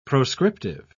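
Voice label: "proscriptive", British English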